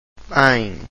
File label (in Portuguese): ae nasal, Portuguese vowels